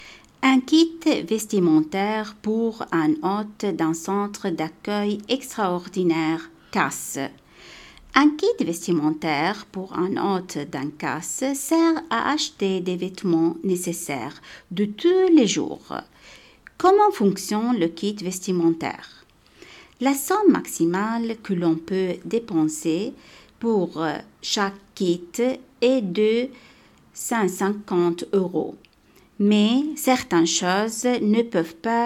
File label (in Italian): kit vestiario, francese